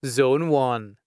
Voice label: "Zone 1", announcements male